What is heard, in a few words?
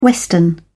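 "western", British English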